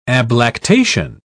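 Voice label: "ablactation", British English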